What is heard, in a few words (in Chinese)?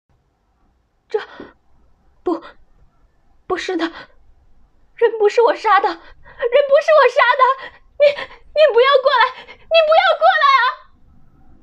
日常戏感练习恐惧